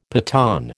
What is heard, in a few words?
"Pathan", American